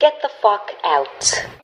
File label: get the fuck out female, expletives; Voice